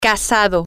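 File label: Spanish, female; married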